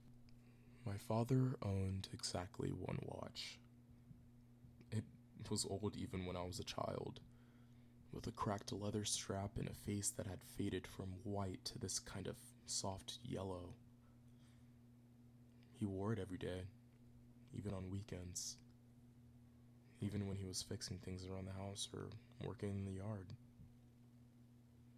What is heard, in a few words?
emotional literary VO, audiobook